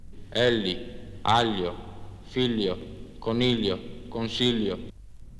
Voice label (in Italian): L Padova